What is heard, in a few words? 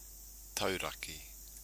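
"tauraki", Māori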